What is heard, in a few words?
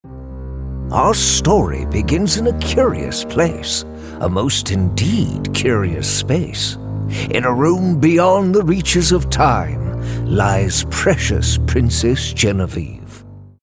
British Storyteller